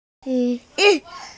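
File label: "ti3yu4", Mandarin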